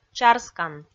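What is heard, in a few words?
"чарскан", Komi, female; kpv audio dict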